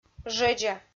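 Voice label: "жӧдза", Komi, female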